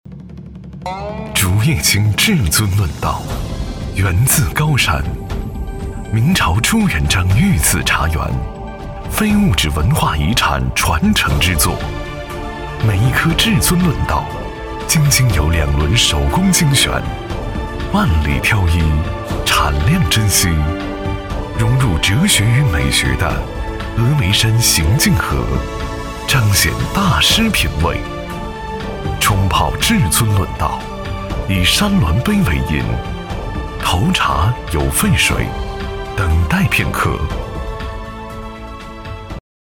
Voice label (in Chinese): 男 企业形象 茶叶; 走心企业形象片样音; 至尊茶叶